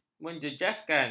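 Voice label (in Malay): "menjejaskan", Malay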